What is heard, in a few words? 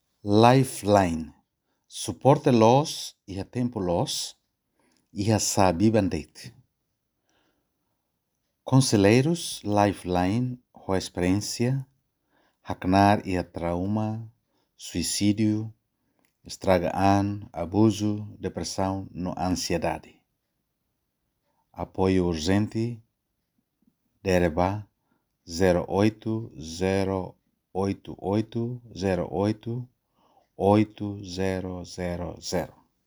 Tetum recording